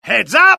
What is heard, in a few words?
alert, dialogue UK; mohaa